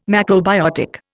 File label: "macrobiotic", Indian